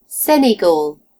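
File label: "senegal", British English; gcompris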